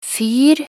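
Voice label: "fyr", Norwegian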